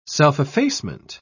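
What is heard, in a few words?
"self-effacement", British English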